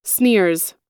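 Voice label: "sneers", American English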